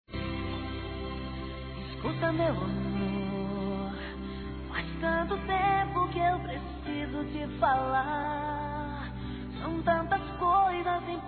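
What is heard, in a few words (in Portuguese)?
romântico, feminino; ESTÃO SEPARADOS; Telemensagens; Românticas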